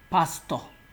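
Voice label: "pastor", Nigerian Pidgin, male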